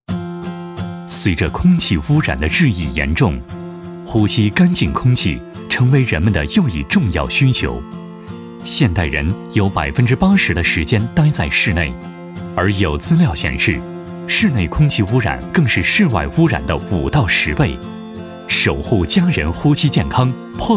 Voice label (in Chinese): 男 空气净化器（亲和）; 温情旁白; 领舵空气净化器